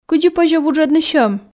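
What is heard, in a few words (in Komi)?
кыдзи позьӧ вуджӧдны сьӧм, Komi, female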